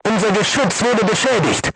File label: voice message weapon damaged, crew tanks german